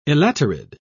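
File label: "elaterid", British English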